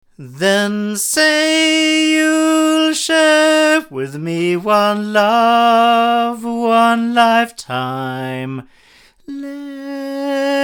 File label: male